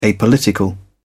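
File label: "apolitical", British English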